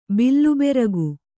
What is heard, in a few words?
"ಬಿಲ್ಲುಬೆರಗು", Kannada